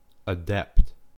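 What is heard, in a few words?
"adept", US English; pronunciations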